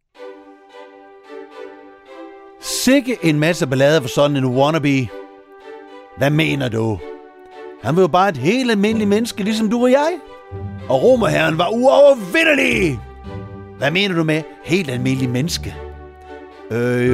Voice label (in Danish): drama med speak; 2 Spedalsk Bliv ren